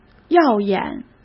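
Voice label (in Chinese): "耀眼", Mandarin Chinese; hsk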